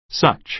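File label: "such", American English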